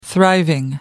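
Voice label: "thriving", British English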